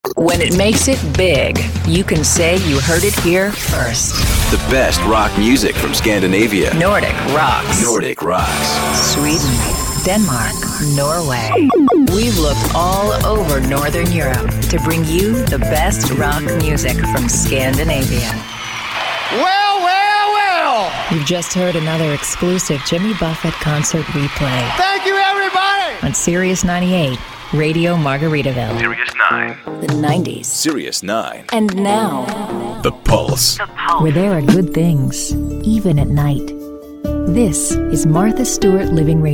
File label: promo women